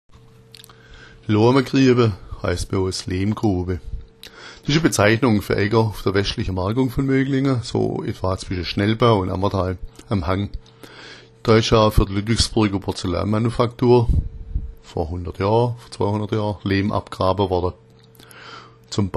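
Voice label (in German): loamagriaba, schwäbisch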